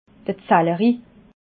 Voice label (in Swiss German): "zellri", alsace